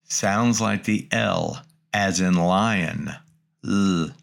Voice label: Greek Consonants Lambda; greek grammar